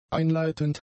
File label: "einleitend", German; adjectives